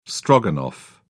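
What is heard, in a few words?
"stroganoff", British English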